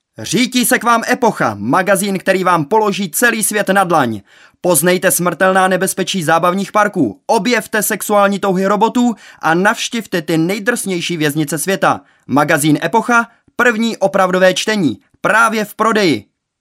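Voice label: Male Czech commercial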